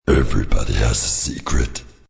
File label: Everybody Secret, Male; Talking Sound Effects